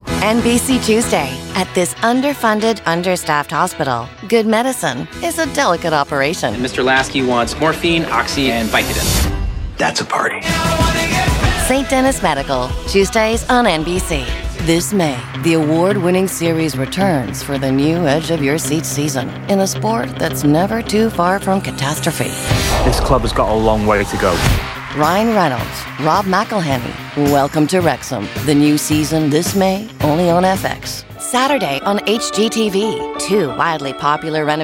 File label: promo